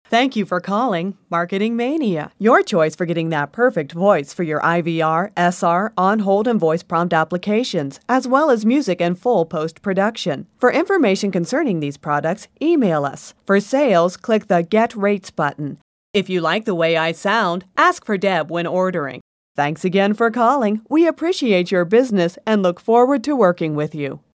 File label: Voice Sample, onhold